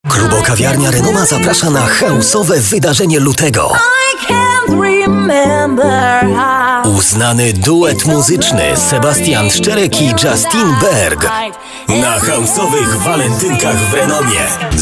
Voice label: commercials